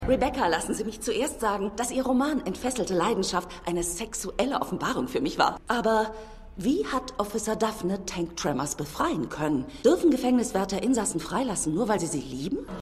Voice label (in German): Frau, Stimmproben